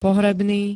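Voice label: "pohrebný", Slovak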